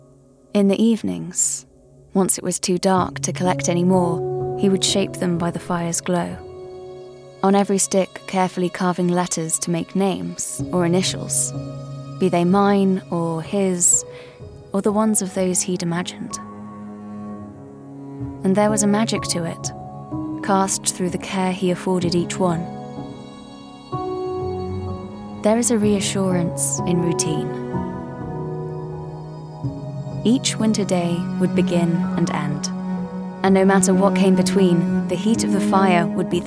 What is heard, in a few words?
Narrative